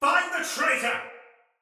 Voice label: Sight Lost Shout, Soldier Male; Sinecure Soldier Male A